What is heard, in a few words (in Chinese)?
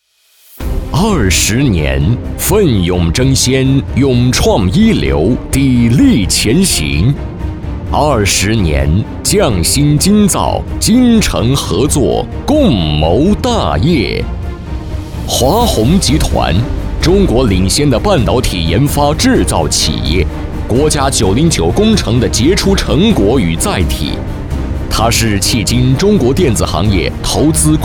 男 宣传片 稳重 浑厚 激昂; 感恩20年